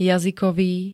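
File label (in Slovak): "jazykový", Slovak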